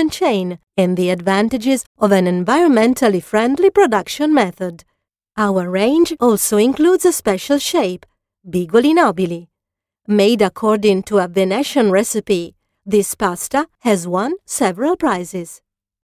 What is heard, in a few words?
english with accent